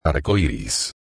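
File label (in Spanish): "arcoiris", español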